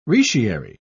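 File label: "retiary", English, British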